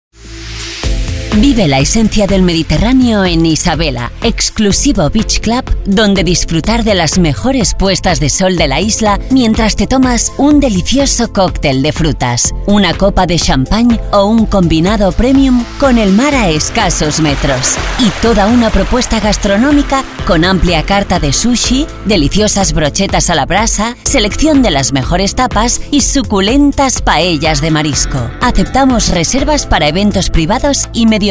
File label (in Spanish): Cuña castellano